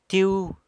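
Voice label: "Tiu" Uncle, San Lucas Quiaviní Zapotec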